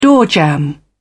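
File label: "doorjamb", British English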